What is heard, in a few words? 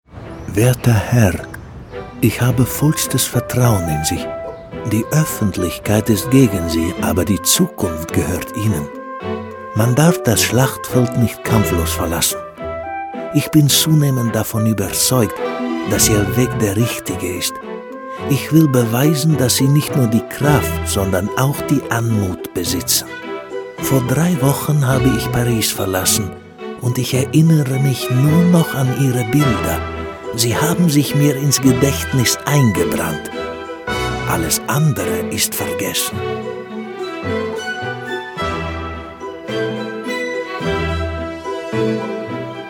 voices audioguide, de; vuitton